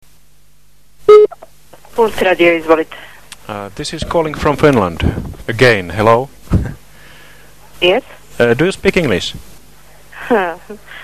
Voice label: Audio QSL Radio Serbia; Radio Puls Despotovac; 91.1 mhz